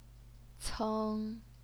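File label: "ceng", Mandarin Chinese, first tone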